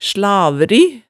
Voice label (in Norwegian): "slavri", hemsedalsmaalet